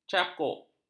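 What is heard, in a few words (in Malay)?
"cakuk", Malay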